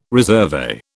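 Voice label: "resurvey", British English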